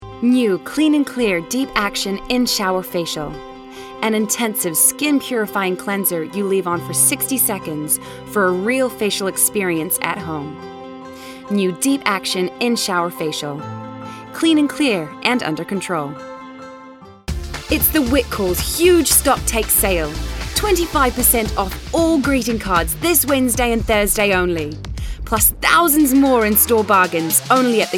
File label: American British and Australian Accents